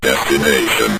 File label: "destination", Synth Voice; Kenney's Sound Pack